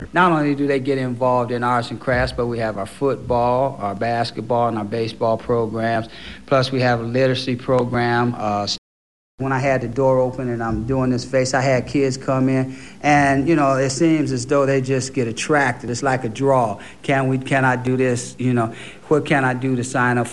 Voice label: AAE Cleveland, African American